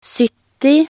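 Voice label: "sytti", Norwegian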